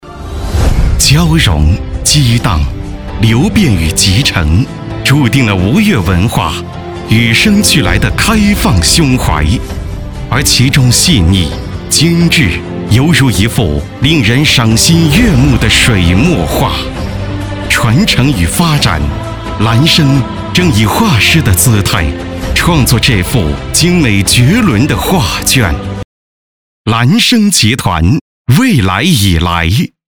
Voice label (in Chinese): 专题, 大气时尚; 兰生集团